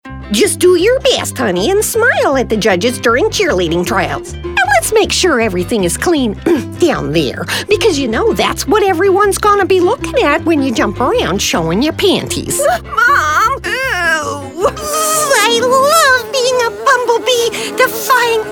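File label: character voice; Women; demo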